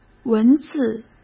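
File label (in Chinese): "文字", Mandarin Chinese; chinese-flashcards; hsk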